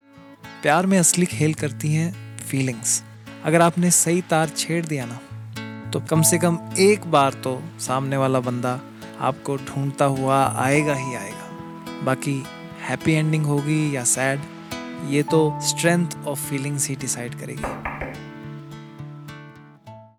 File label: Romantic Dailog